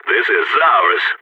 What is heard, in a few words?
on a roll brag, balkan; Counter-Strike Global Offensive